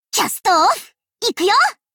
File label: warcry